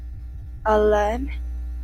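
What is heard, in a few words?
"alarm", JPN, female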